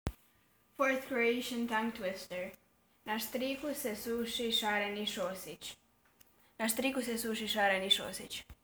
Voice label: Croatian